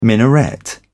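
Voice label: "minaret", British English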